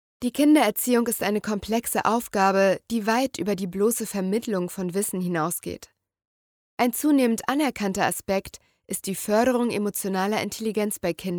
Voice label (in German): hoerprobe sachbuch